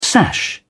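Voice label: "sash", British English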